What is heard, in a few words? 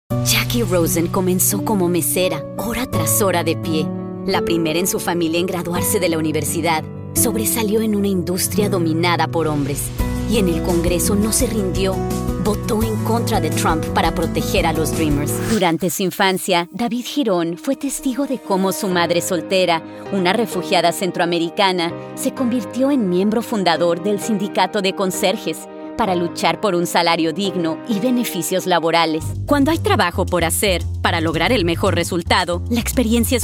Political Demo Spa